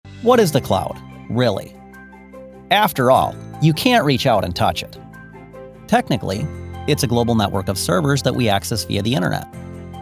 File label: Narration